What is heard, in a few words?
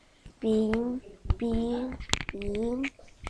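"beam", spoken word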